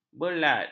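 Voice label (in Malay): "berlat", Malay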